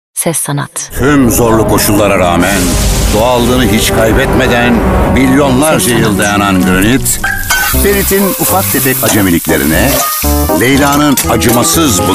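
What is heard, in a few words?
Turkish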